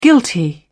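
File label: "guilty", British English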